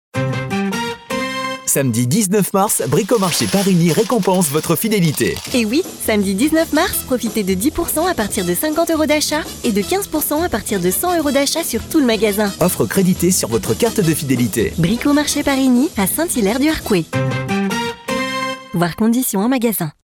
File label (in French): voix off publicitaire commercial; BRICOMARCHE PARIGNY OP FIDELITE 19 MARS